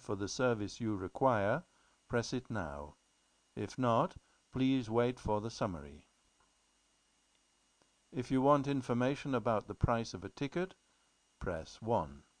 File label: railway answering svce